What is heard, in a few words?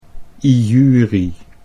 "ijurie", Dutch